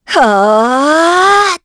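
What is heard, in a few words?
Vox Casting, Korean; Isolet; kingsraid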